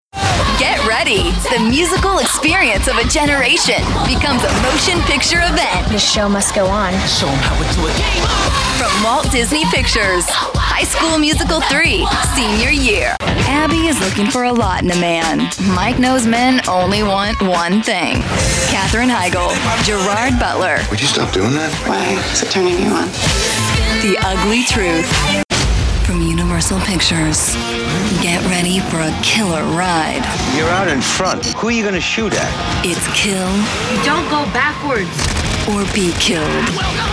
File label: trailer